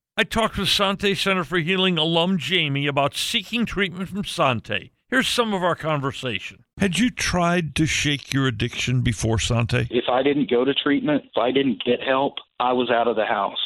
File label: interview spot; Sante Center For Healing